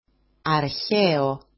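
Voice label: "archeo", Greek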